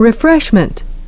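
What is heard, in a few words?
"refreshment", English; rɪˡfreʃ.mənt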